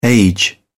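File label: "age", British English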